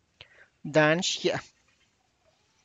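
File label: tlacochahuaya, Zapotec; mountain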